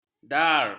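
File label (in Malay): "dar", Malay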